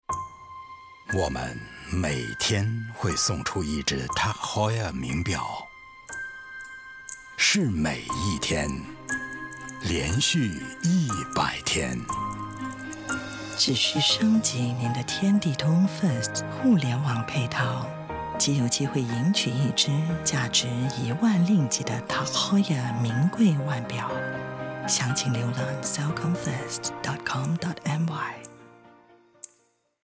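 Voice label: Mandarin; 100 Watches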